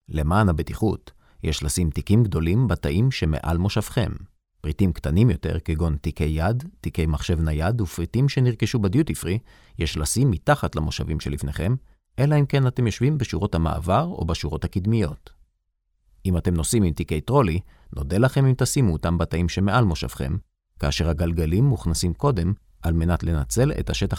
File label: male hebrew voice over talent narrative